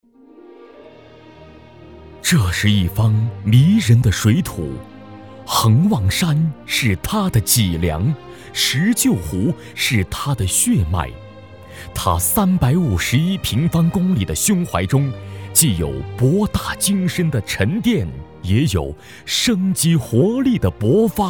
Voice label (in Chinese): 宣传片 城市 生态新城 大气, 男国; 生态新城博望